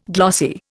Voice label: "glossy", Indian

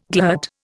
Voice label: "glut", Indian

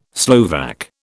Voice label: "Slovak", British

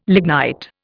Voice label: "lignite", Indian

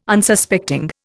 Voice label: "Unsuspecting", Indian

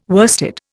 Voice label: "worsted", Indian

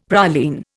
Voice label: "praline", Indian; Dictionary

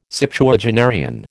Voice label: "Septuagenarian", American

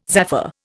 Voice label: "zaffre", Indian

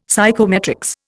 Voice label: "psychometrics", Indian; Dictionary

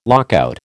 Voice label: "lockout", American

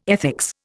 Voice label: "ethics", Indian

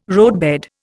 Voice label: "roadbed", Indian

